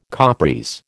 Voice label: "Capris", American

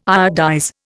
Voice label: "iodize", Indian

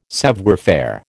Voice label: "savoir faire", American

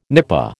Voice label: "nipa", American

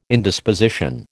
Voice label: "Indisposition", American

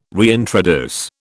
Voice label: "reintroduce", British

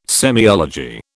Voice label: "semiology", British

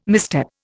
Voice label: "misstep", Indian

